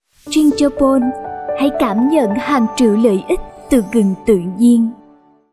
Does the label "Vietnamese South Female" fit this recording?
Yes